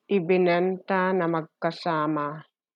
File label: Tagalog